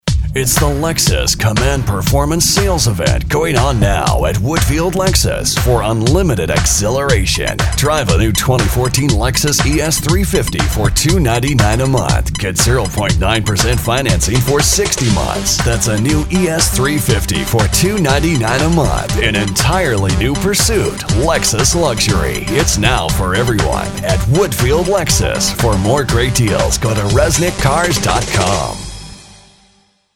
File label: Deep Agressive